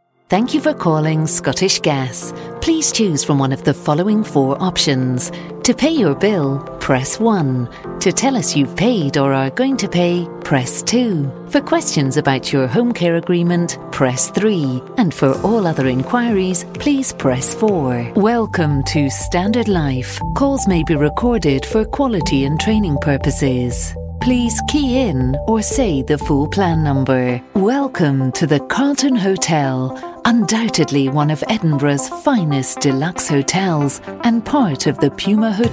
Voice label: on-hold